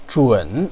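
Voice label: "zhun3", Chinese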